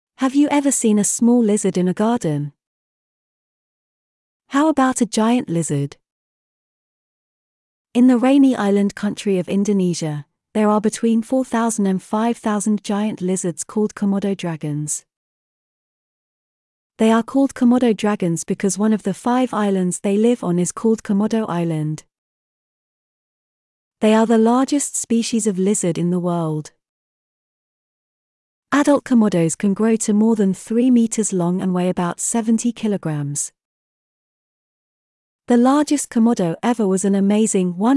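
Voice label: British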